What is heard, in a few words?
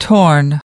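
"torn", US English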